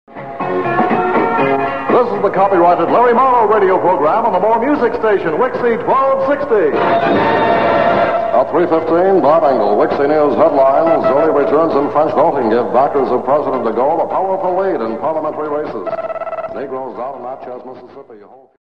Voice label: Short Newscast